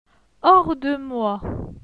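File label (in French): "Hors de moi", French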